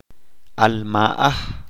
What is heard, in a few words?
soul, chalchiteko